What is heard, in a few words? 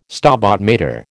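"Stabat Mater", American English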